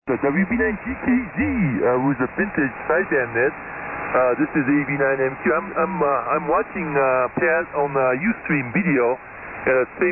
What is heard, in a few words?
Kenwood T520 MC-50 Mic & Kenwood TL-922A; Westmont, IL.